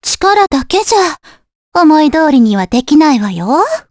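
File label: voice, Japanese; Cassandra; kingsraid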